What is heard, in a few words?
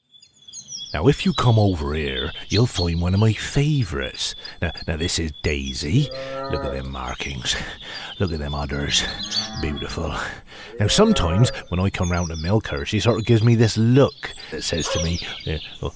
west country farmer